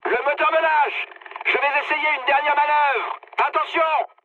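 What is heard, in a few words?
damaged engine, mood high, French; War Thunder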